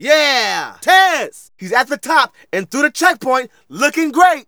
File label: Narration; Ollie King